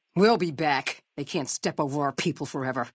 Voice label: female adult greeting; FNV